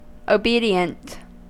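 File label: "obedient", US English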